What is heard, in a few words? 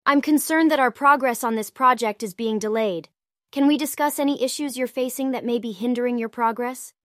synthesized audio